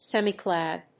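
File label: "semiclad", English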